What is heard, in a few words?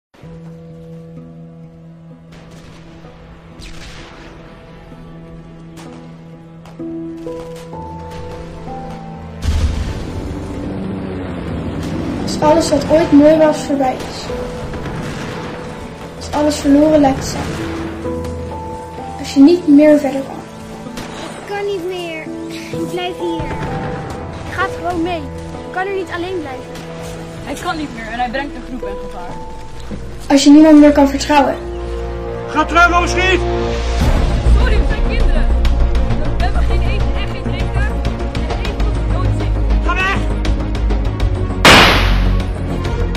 trailer voiceover